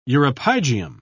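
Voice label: "uropygium", British English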